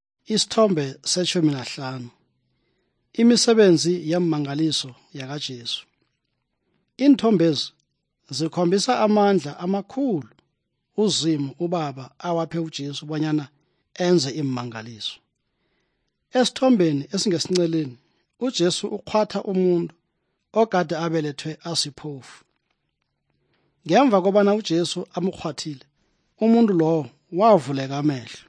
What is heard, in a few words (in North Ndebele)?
good news ndebele southern, drama